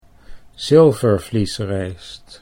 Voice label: "zilvervliesrijst", Dutch